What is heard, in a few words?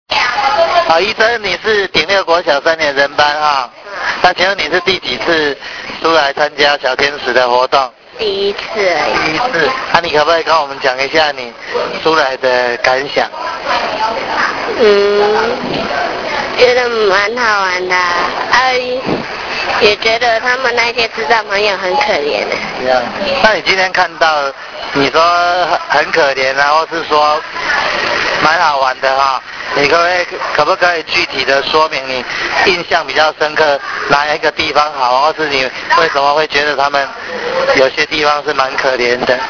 interview; 感動電池小天使對於本次活動的心得感想; 感動電池小天使關懷之旅